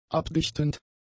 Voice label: "abdichtend", German; adjectives